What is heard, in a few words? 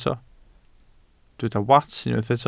"wats", Welsh